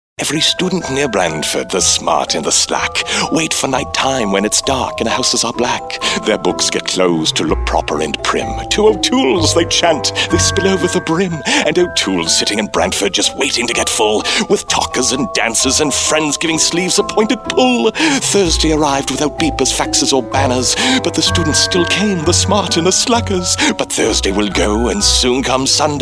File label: voiceover; GRINCH